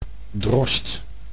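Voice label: "drost", Dutch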